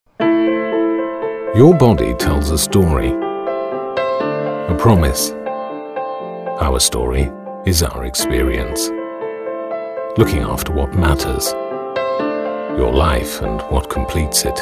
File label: voiceover